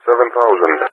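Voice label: "70", English speech; Allied Flight; Numbers; DCS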